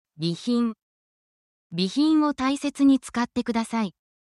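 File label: Japanese word, essential Japanese phrases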